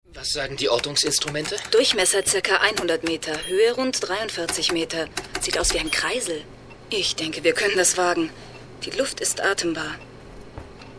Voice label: Hörspiel; PerryRhodan

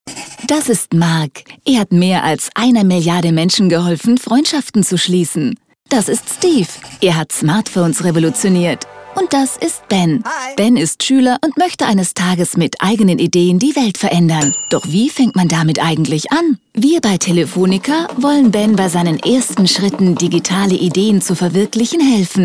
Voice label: Erklärfilm; O2 Think Big

